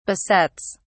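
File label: "besets", British English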